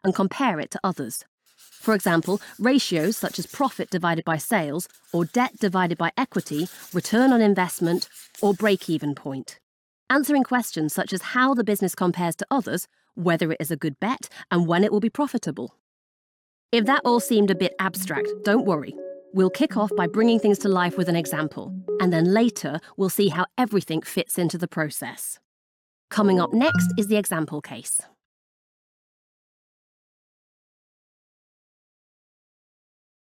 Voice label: Financial Forecasting E-Learning; ZHAW

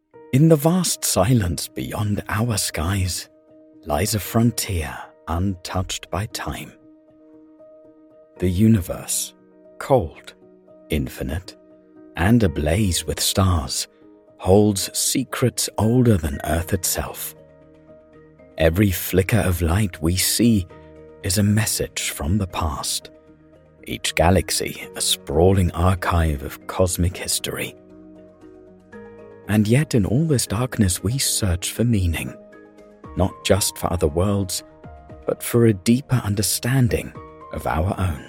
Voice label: DOCU EN, NATIVE UK - dokumentarisch, ruhig; English UK; DOCU THE UNIVERSE

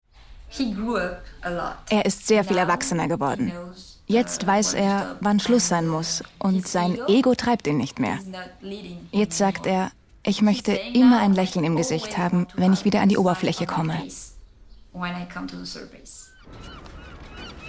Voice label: Voice Over; A Life in Extremes

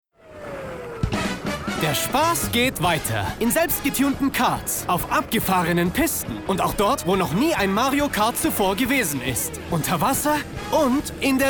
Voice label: German; Mario Kart

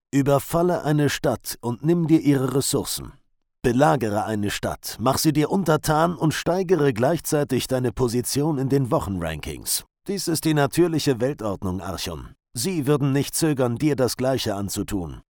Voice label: pcgame narrator hero

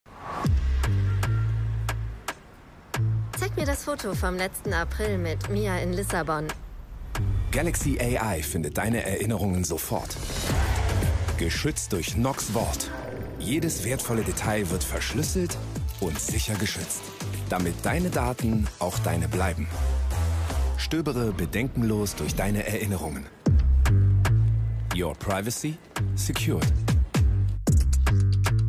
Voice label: Commercial, German; Werbung I Samsung; voice; Samsung Galaxy

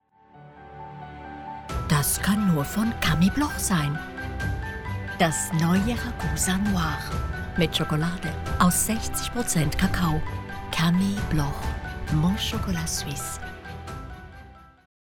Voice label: Commercial; Camille Bloch

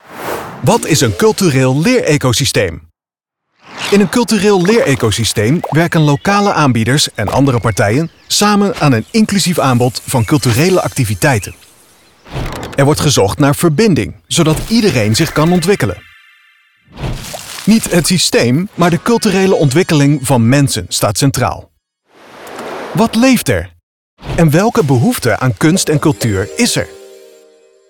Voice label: EXPLAINER; leerecosysteem

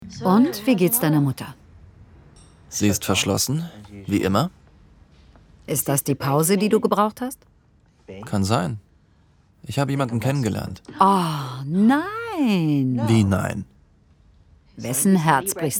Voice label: Voiceovers

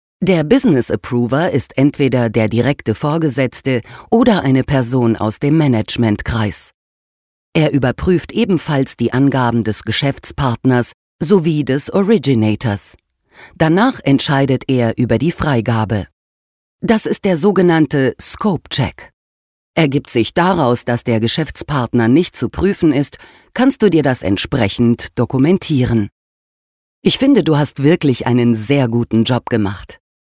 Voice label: e-learning; LOFT VOICES

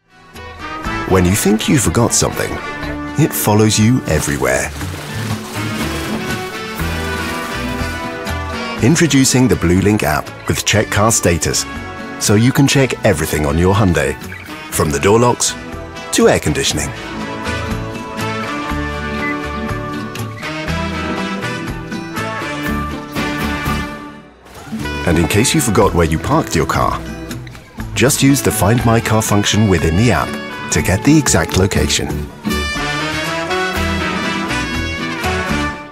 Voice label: Commercial; Hyundai Blue Link

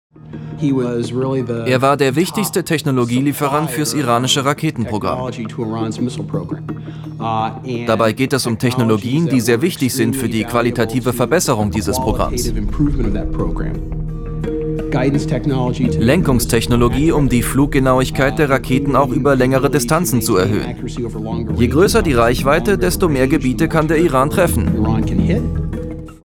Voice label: Voiceover; BR Investigativ; Sicherheitsexperte

